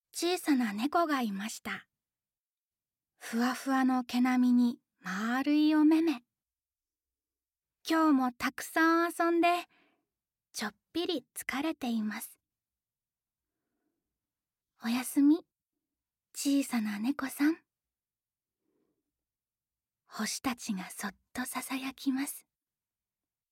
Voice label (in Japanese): ナレーション